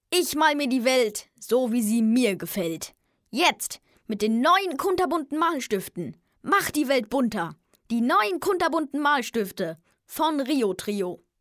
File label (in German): Jungen